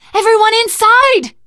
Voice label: stay together inside, survivor voice teen girl

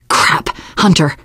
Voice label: heard hunter, teen girl, voice; survivor voice

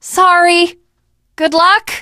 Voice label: initial meeting, teen girl; video game; source engine; left4dead2; survivor

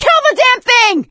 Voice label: witch chasing; source engine; left 4 dead 2; survivor; producer

